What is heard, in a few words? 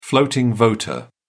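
"floating voter", British English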